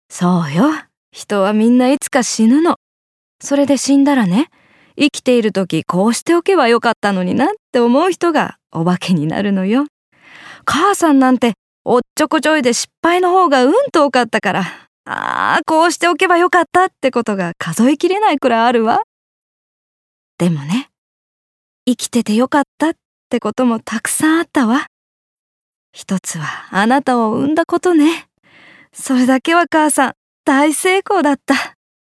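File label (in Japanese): 母親, ボイスサンプル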